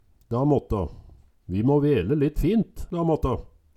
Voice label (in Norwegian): "da matta", Numedalsmål; Vi må vele litt fint, da måtta